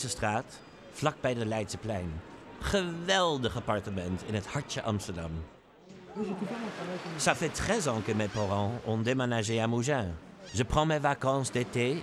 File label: eng dutch fren span